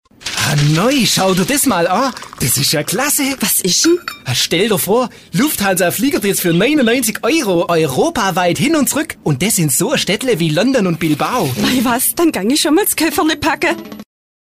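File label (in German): Dialekt schwäbisch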